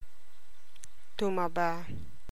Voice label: tagalog; gotten fat - tumaba